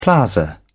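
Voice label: "plaza", British English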